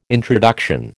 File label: "introduction", American